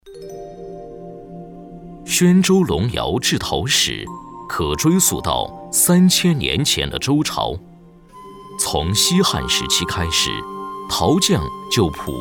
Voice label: 非遗纪录; 古龙窑

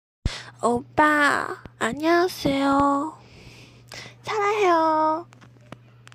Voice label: 韩语

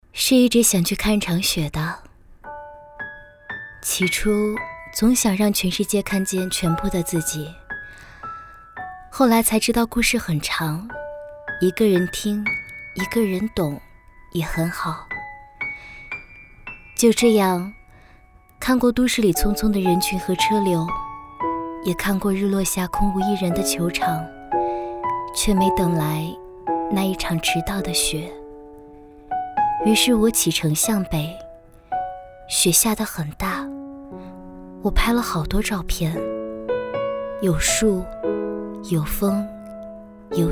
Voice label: 旁白 电影旁白; 去北方看雪

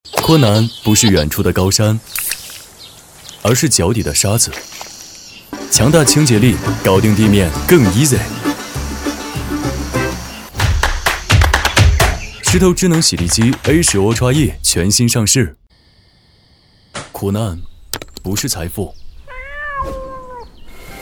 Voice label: 轻松自然; 石头智能洗地机

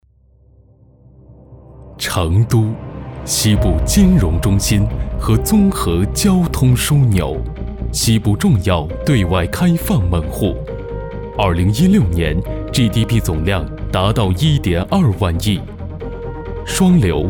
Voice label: 宣传片稳重大气

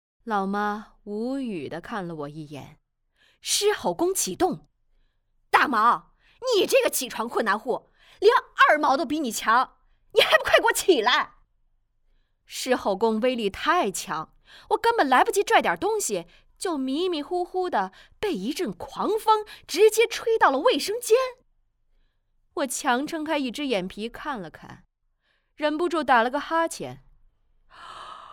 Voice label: 儿童趣味故事

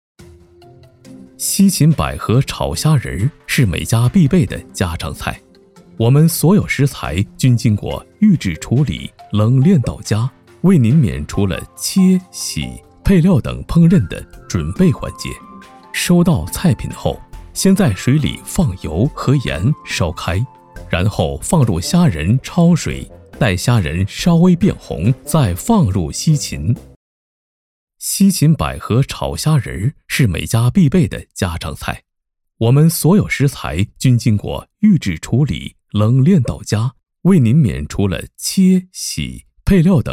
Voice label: 美食解说西芹百合炒虾仁

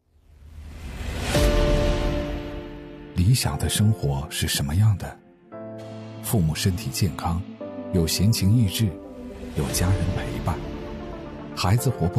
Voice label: 地产广告; 宏华湖山漾月

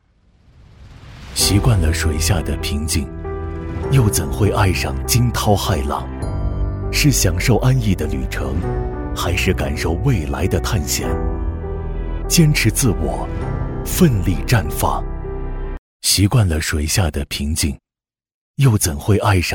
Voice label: 旁白励志旁白

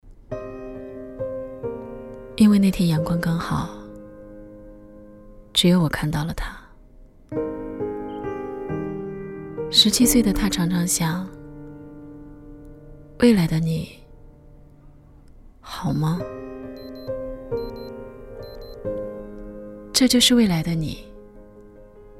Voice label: 旁白走心; 你的故事

